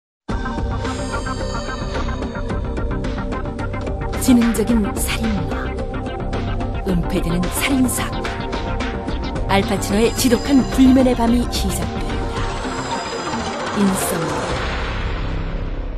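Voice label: Korean, female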